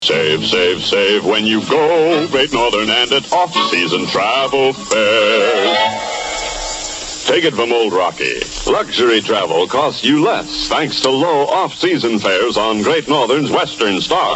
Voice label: Radio Ad